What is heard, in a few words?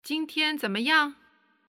Neutral Hello; Civ5; Wu Zetian